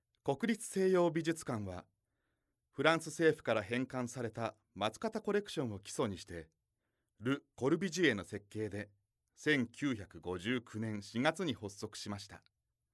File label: narration